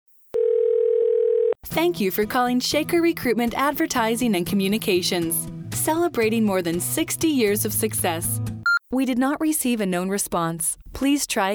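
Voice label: Phone Hold IVR Female, Natural, Approachable, Engaging; Narration, Phone Hold/IVR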